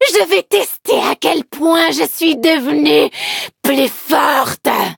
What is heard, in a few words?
Cookie level up, fr; cookie run